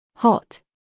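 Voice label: "hot" British English, female; everyone-can-use-english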